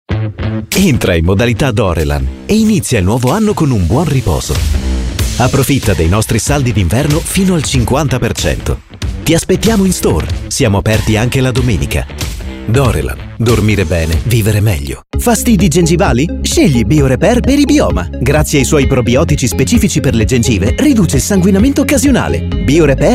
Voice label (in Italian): spot, spot pubblicitari